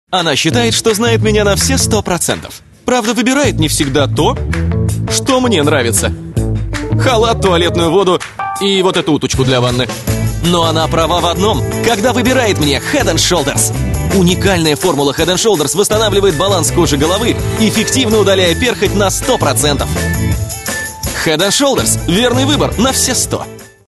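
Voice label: Russian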